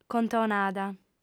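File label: "contonada", female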